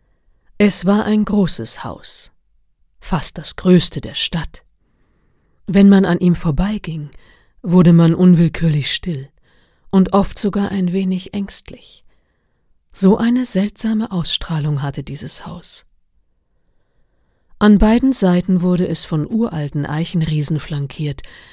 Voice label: Geheimnisvoll

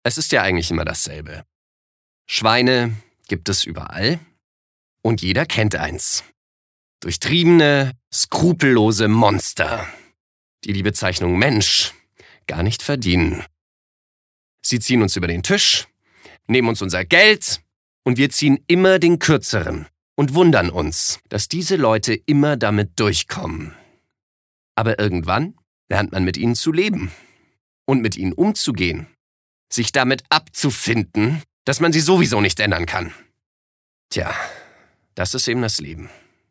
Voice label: Aggressiv; Schweine

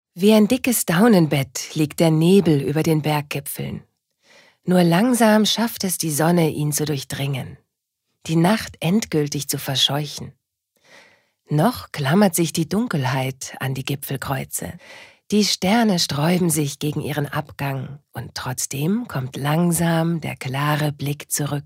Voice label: Schauspiel Variabel

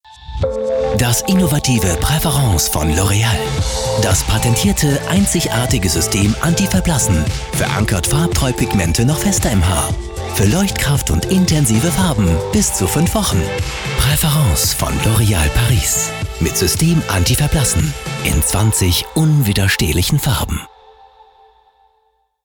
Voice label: Dynamisch; LOreal

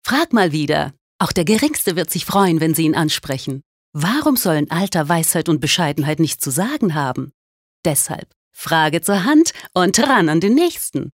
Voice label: Werbung Freundlich

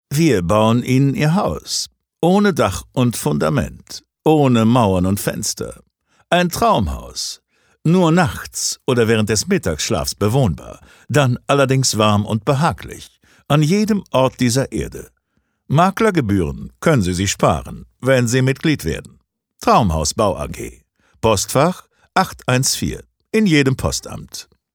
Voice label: Werbung Neutral

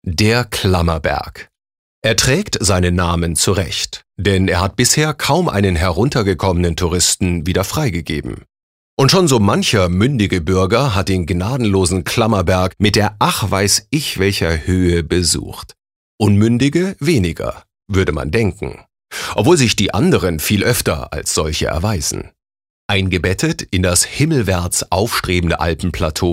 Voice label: Kommentar Freundlich

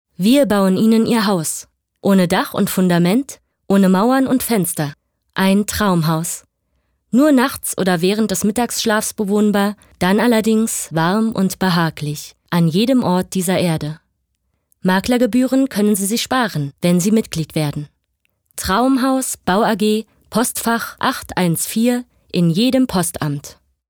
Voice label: Werbung Freundlich

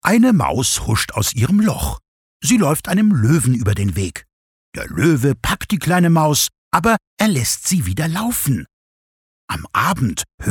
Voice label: Schauspiel Witzig

